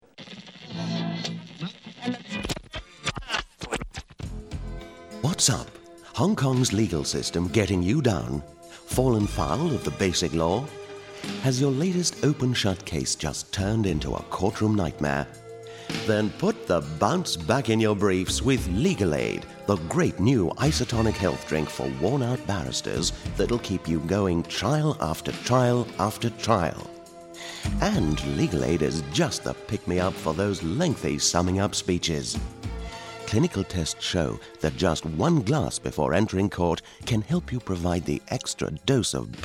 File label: Commercial; Legalade